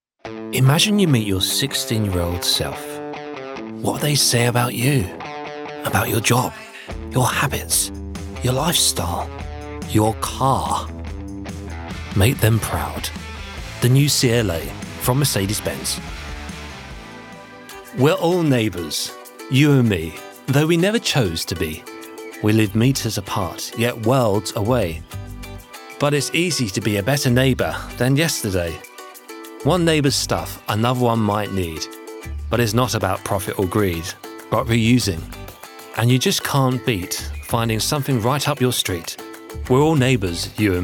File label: English, M, Commercial; advertising voice over